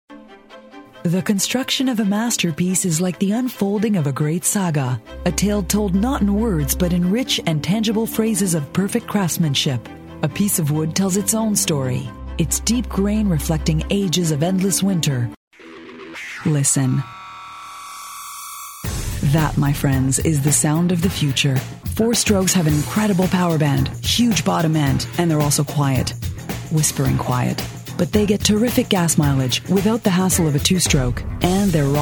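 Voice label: English American female voice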